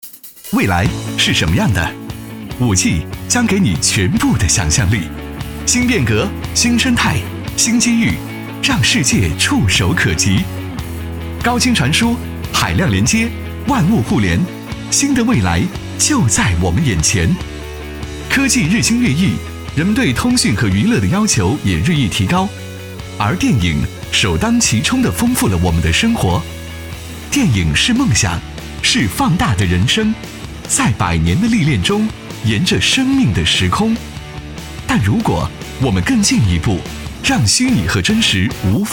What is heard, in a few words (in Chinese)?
男 广告 激情; 蓝鲸全景